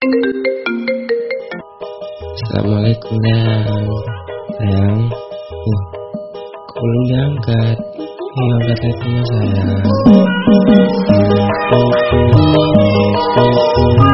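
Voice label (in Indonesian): nada dering WA ayo angkat telponnya sayang suara cowok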